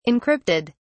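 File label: "encrypted", British English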